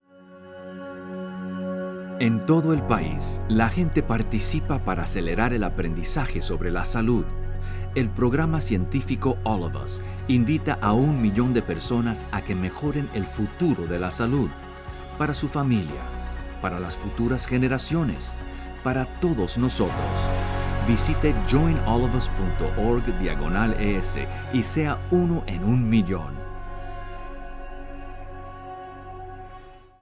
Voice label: psa radio spot spanish